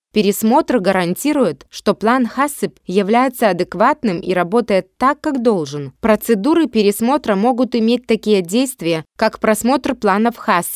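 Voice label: Russian F ELEARNING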